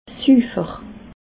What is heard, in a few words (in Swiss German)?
"sufer", alsace